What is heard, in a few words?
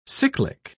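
"cyclic", British English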